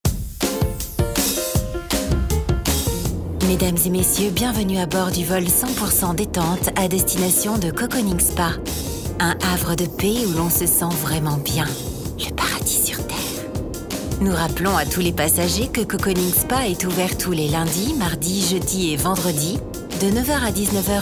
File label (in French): Attente telephonique; COCONING SPA